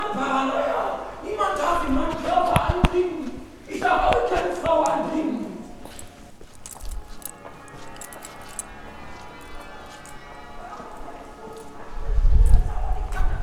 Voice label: Crazy German Man Utrecht

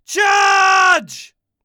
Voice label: Charge British Warrior

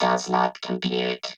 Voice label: Robot Voice Does Not Compute

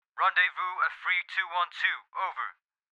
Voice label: Radio Comm

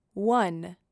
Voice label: "One", F

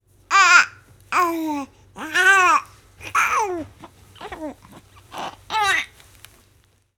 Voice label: Infant talk

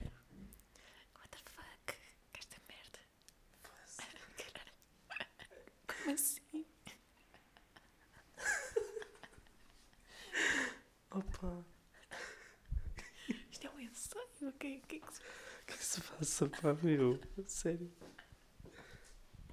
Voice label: Sussuros Whispers In Portuguese

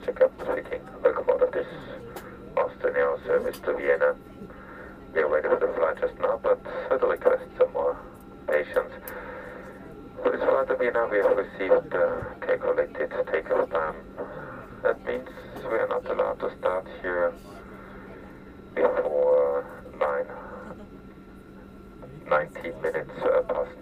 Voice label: Captain Speaking Delay; Austrian Airways

